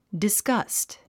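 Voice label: "disgust", English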